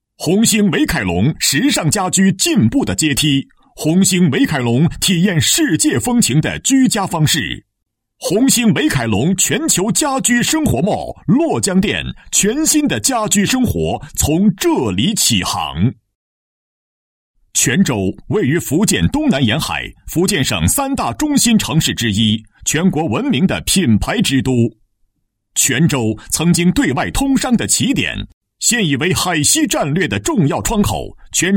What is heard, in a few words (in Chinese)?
专题, 国语男声配音; 红星美凯龙